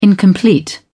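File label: "incomplete", British English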